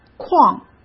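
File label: "框", Mandarin Chinese; chinese flashcards; hsk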